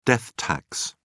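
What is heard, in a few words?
"death tax", British English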